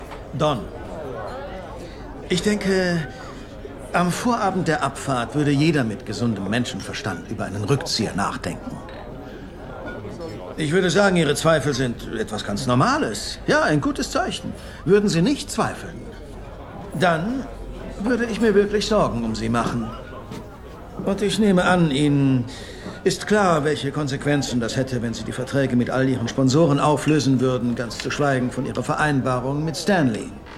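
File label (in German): Synchron, Sprecher, Männer; Vor uns das Meer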